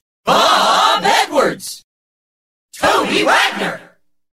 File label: jock shouts